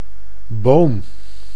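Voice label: "boom", Dutch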